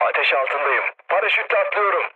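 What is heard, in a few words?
Turkish on fire, mood low, speech; War Thunder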